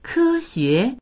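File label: "ke1xue2", Chinese; 科学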